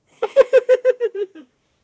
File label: a jolly deep voiced santa, holiday